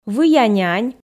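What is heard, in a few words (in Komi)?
выя нянь, Komi, female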